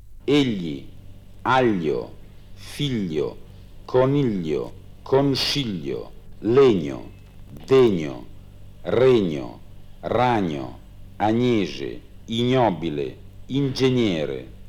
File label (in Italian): Fonemi Lunghi Bologna